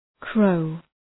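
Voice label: "crow", English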